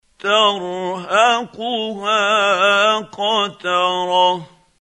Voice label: quran, Hussary, tafseer; Abasa